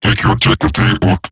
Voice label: Patrol Robot Large, Barks, Battle; Warframe; Eximus Corpus Robotic Large